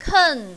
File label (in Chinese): "ken4", mandarin, female